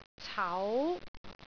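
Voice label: "cau2", Cantonese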